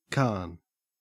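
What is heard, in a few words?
"carn", Australian English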